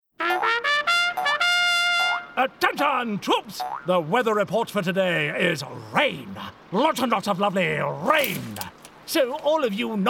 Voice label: Animation; Bossy Boot